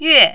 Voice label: "yue4", mandarin